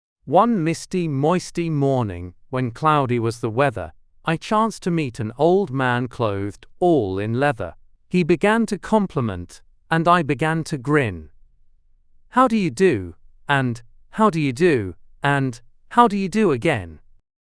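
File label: male British, classic rhymes; One Misty Moisty Morning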